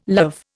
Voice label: "lav", Indian

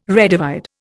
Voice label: "redivide", Indian

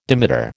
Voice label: "dimeter", American

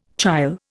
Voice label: "chyle", Indian

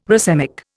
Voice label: "racemic", Indian

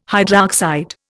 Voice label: "hydroxide", Indian

